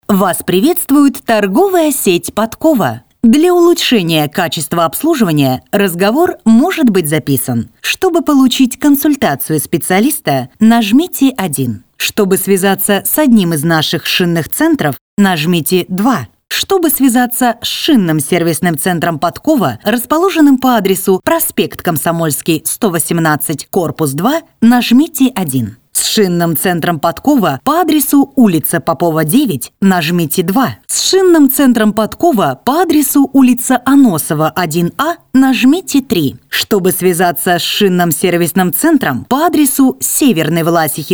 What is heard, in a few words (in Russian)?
Автоответчик